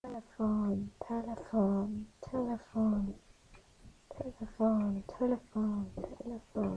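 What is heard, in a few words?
"telephone", spoken word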